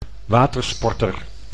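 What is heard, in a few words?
"watersporter", Dutch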